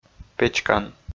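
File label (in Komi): "печкан", Komi, male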